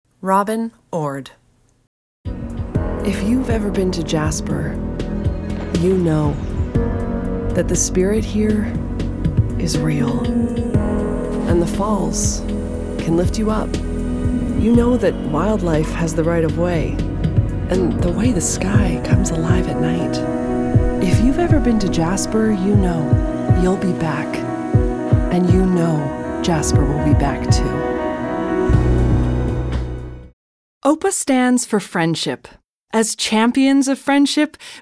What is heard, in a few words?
Commercial